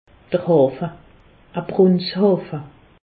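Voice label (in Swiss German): "hafe", Alsace, Haut Rhin; medecine; pot de chambre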